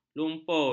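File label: "lumpur", Malay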